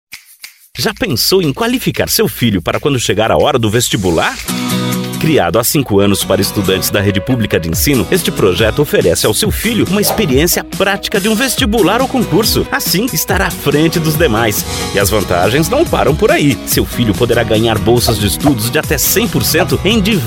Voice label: spot; Mundial Cursos